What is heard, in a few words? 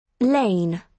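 "lain", British English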